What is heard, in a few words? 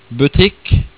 "butikk", Norwegian